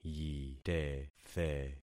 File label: "yitethe", concat syllables; Affixing experiment